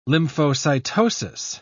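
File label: "lymphocytosis", English, British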